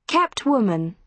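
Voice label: "kept woman", British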